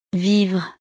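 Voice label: "vivre", French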